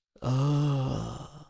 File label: oldman; fifth dialogue